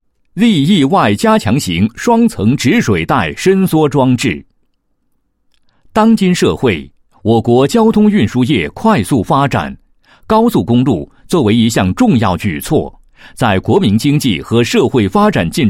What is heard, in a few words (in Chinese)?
男 解说词; 双层止水带伸缩装置展示视频